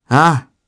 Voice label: Vox, jp; Fluss; kingsraid

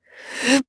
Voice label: Casting, jp; Tanya; kings raid

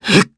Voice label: Casting, jp; Clause; kingsraid

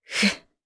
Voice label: Casting, jp; Hilda; kingsraid

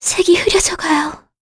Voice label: Vox Dead, kr; Talisha; kings raid

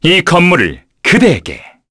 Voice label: Vox Skill, kr; Fluss; kingsraid

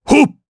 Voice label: Attack, jp; Bernheim; kingsraid

